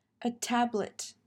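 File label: "A tablet", English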